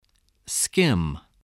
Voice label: "skim", voice, spelling

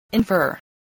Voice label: "infer", spelling voice

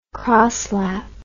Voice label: "crosslap", English

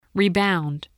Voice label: "rebound", english

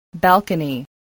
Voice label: "balcony", english

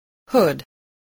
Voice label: "hood", voice spelling

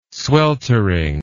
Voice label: "sweltering", English word

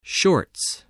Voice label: "shorts", spelling

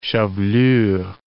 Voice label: "chevelure", English

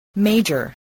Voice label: "major", spelling voice

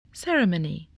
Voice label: "ceremony", English, voice

